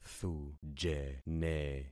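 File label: "thujene", concatenated syllables; Affixing experiment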